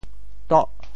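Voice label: "toh8", Teochew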